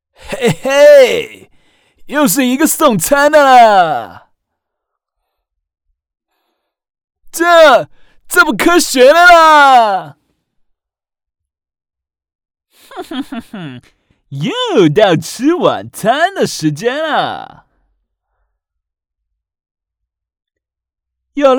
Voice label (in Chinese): 男声 游戏角色试音 台湾腔